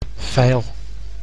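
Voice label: "vijl", Dutch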